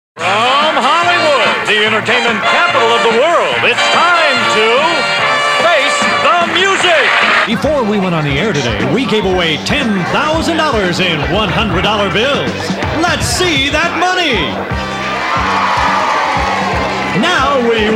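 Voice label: Game Show Announcer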